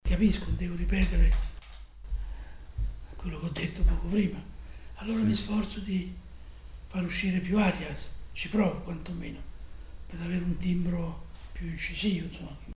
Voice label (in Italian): esiti di cordectomia; voce